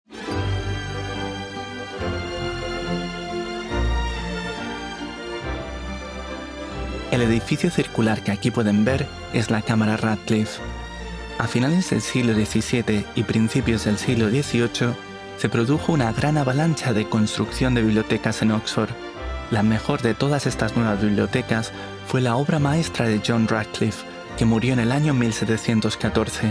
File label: Spanish; Stonehenge Inner Circle Access